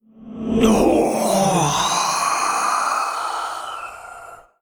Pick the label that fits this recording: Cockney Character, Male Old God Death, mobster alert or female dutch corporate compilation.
Male Old God Death